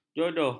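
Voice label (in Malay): "jodoh", Malay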